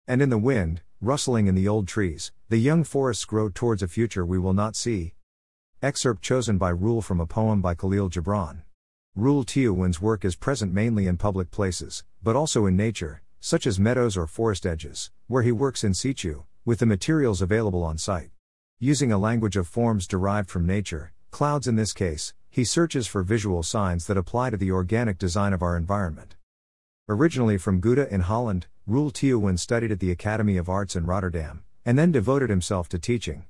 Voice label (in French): anglais